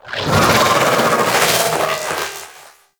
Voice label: Vocal Attack; Warframe; Sentients; Conculyst

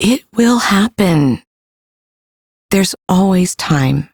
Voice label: Ambient Female, en; Duviri

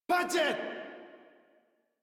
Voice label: Surprised Modular Corpus Male; Dialog; Barks; Battle; Warframe

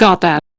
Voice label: Crew Accept, Female; Warframe; Railjack; Steel Meridian

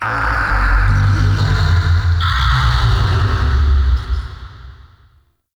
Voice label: Weather Angry Voice; Ambience; Gameplay; Warframe; Duviri

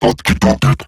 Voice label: Attack Robot Large; Dialog; Barks; Battle; en; Eximus Corpus; Corpus Robotic Large

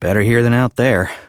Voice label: Citizen Male, en; Citizens

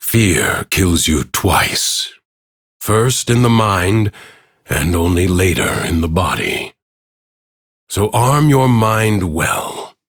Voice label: Ambient Bark Fear, en; Warframe; Duviri; Teshin

